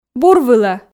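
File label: "бур вылӧ", Komi, female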